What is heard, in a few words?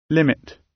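"limit", British English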